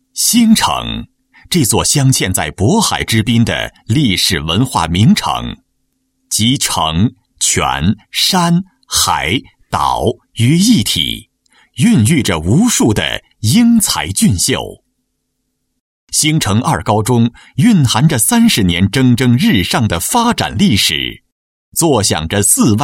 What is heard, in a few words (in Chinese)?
专题 高中, 国语男声配音; 兴城二高中